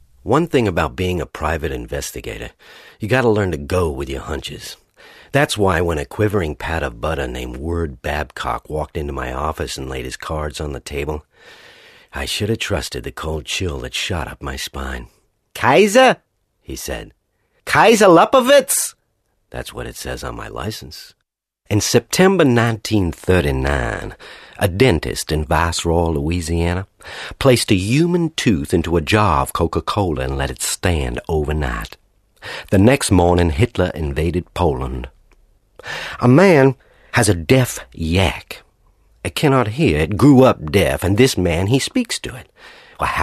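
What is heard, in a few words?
US drama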